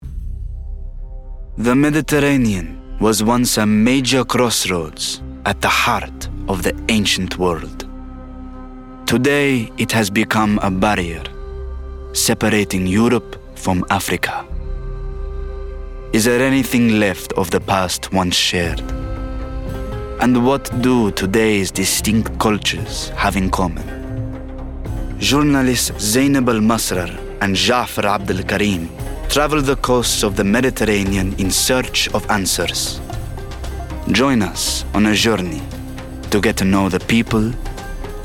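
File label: documentary arabic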